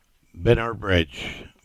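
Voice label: "Binner Bridge" English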